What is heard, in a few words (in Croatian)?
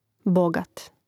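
"bogat", Hrvatski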